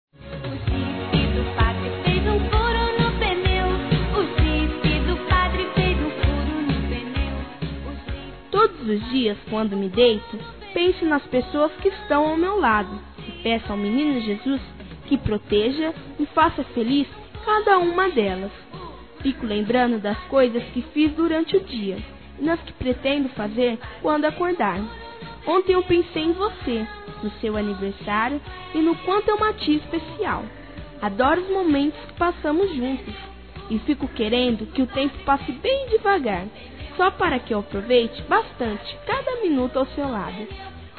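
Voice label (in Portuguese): Tia Infantil, Feminino; Aniversários; Aniv Familiar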